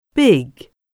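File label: "big", English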